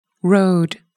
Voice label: "road", English

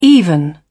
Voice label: "even", English

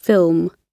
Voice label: "film", English

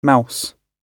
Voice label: "mouse", English

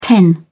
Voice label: "ten", English

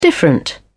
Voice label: "different", English

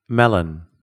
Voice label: "melon", English

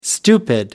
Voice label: "stupid", English

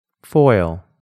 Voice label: "foil", English

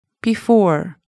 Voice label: "before", English

